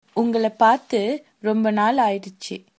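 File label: long time no see, tamil, female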